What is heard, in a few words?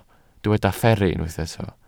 "fferru", Welsh